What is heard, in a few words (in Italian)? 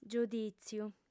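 "giudìtziu", lingua sarda, female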